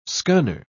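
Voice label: "scunner", English, British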